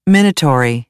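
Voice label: "minatory", American English; AmericanBritish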